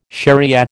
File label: "shariah", American